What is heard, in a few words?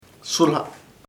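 deer ciervo, Chamacoco word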